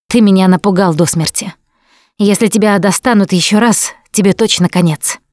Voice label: revive critical friend, Russian; Producer

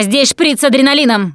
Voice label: adrenaline, russian; Producer

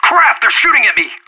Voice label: radio taking fire; Counter-Strike Global Offensive

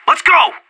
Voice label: radio lets go, player vo anarchist; Counter-Strike Global Offensive

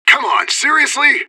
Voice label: radio bot under fire friendly; fbihrt

